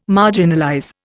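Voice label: "marginalize", Indian English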